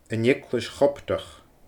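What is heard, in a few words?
"an Eaglais Choptach", Gaelic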